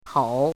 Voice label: "tou3", pinyin